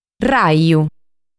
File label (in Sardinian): "Raju", Sardu